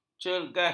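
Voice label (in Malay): "cergas", Malay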